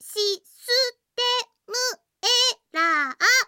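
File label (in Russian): zvuki s golosom iaponskoi anime devushki, Japanese anime girl voice sounds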